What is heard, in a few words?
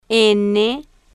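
"n", spanish, female, slow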